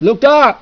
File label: viet hand grenade